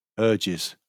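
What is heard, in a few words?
"urges", British English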